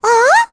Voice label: Vox, en; Annette; kings raid; voices heroes en